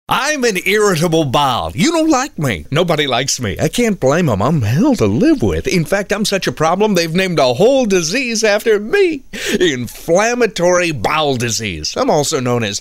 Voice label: crazies oddballs humerous